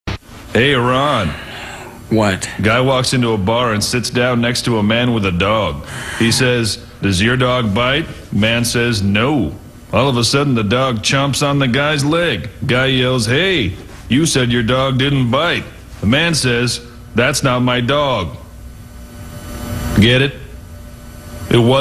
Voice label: Commercial

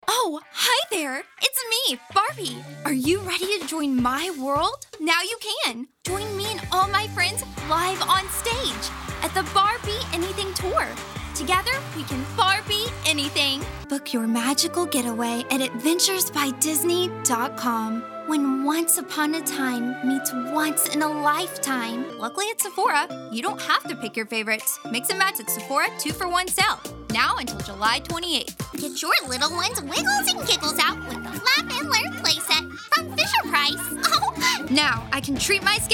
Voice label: Commercial

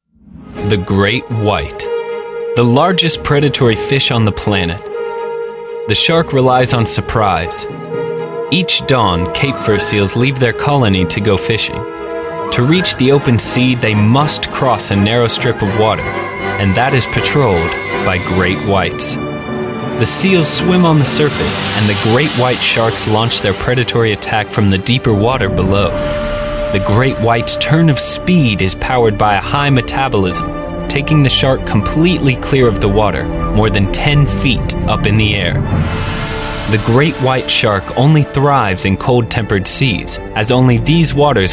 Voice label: Narration; promo

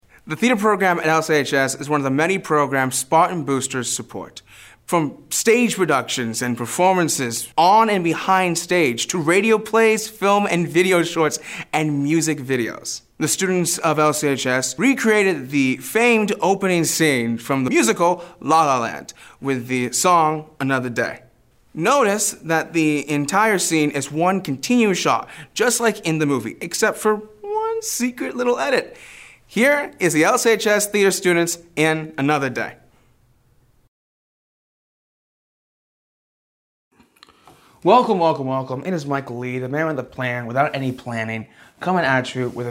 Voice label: Hosting

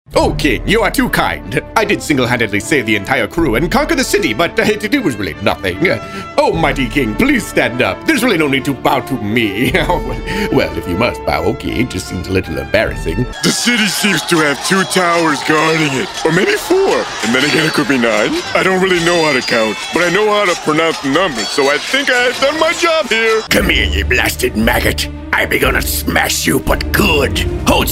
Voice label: Animation

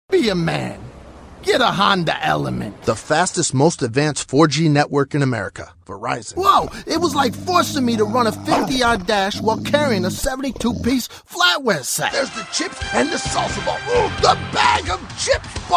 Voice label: Commercial

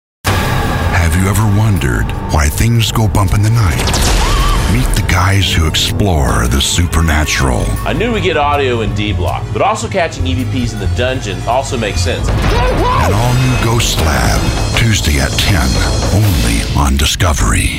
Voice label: Promo; narration

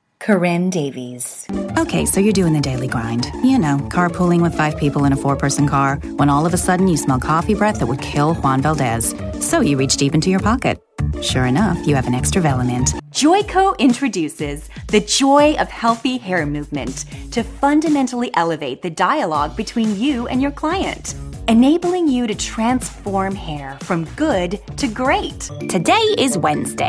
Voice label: commercial

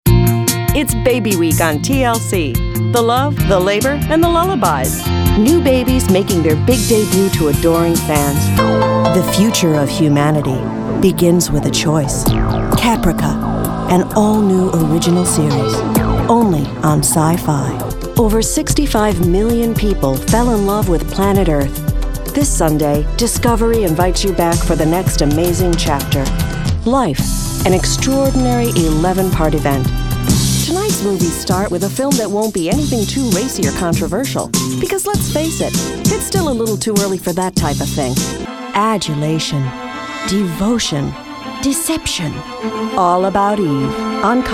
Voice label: Promo

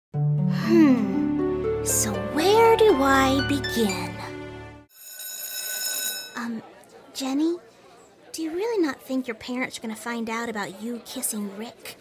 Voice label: Animation

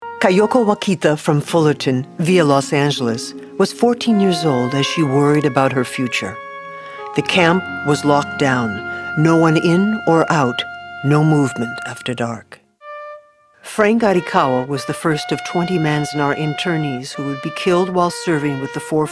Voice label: Narration

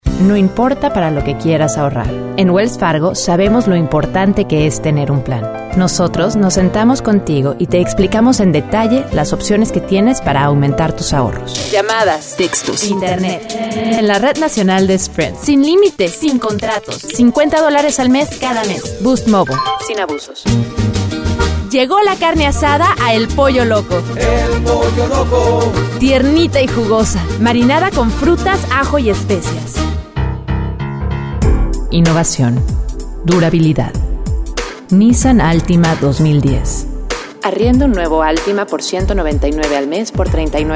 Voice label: Spanish, commercial

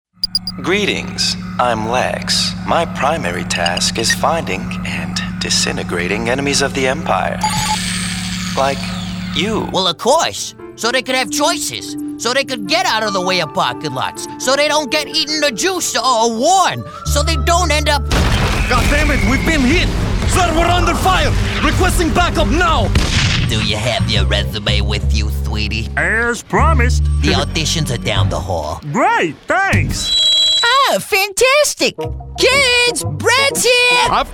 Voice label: animation

